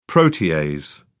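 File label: "protease", British English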